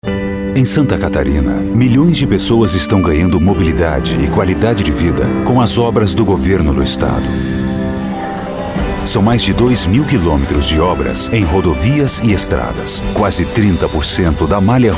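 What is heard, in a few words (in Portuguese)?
Português - Brasileiro, Voz Padrão - Grave, Masculino; DEINFRA SC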